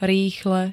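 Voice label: "rýchle", Slovak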